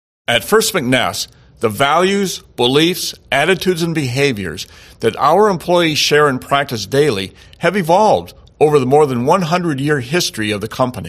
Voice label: voiceover